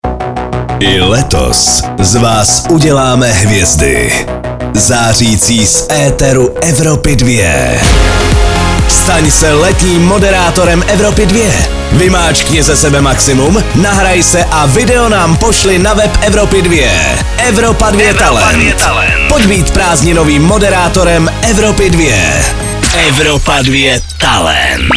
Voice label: promo; evropa2; talent evropy 2